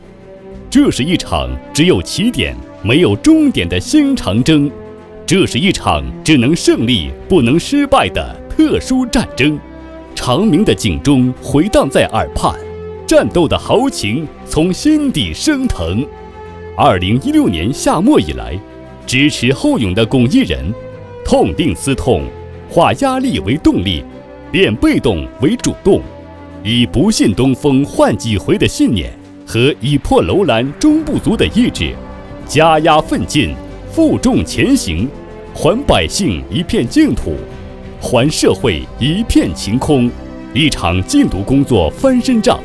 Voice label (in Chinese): 男国 专题 政府 力度; 禁毒片